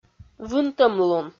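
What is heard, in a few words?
"вынтӧмлун", Komi, female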